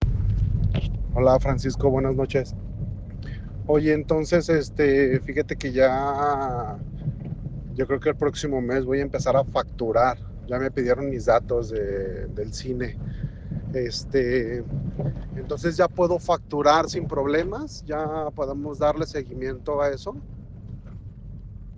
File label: whatsapp ptt